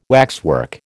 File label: "waxwork", American